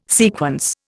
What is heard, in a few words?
"sequence", Indian